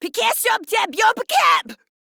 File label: Attack, Female; Warframe; Modular Corpus Female; Battle Barks; en